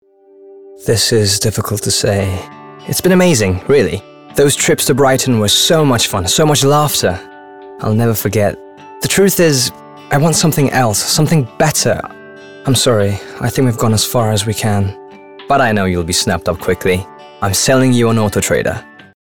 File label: male english with undetectable accent commercial; autotrader